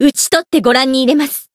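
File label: Voice encounter, Japanese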